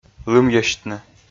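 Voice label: "лымйӧсьтны", Komi, male; kpv audio dict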